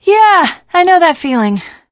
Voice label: initial meeting, teen girl